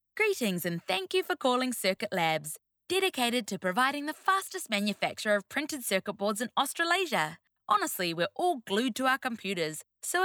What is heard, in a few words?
NZ Female Voice Phone